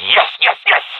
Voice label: bomb pre explosion, professional epic; vo; Source Model Packs; Counter Strike Model Pack; CS2

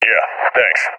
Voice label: thankful; Counter Strike; CS:GO; seal epic

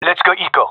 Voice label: request eco, gendarmerie male

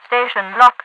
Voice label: station block, overwatch radio voice; Half Life 2

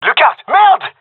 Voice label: taking fire friendly, gendermerie male

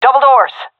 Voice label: loc double doors, seal fem; vo; Counter Strike Model Pack; CS:GO

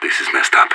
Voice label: scared emote

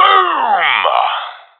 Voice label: i killed enemy, professional; Counter Strike Model Pack; CS:GO

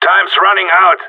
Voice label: radio bot time; Counter Strike Model Pack; CS:GO; gsg9

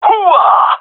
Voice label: male cheer; vo

